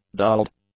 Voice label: "dulled", English; adjectives

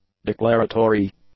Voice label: "declaratory", english; adjectives

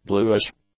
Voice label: "bluish", English; adjectives

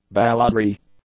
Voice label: "balladry", English

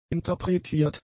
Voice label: "interpretiert", German; adjectives

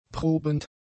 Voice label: "probend", German; adjectives

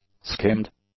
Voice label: "skimmed", English; adjectives

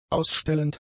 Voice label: "ausstellend", German; adjectives

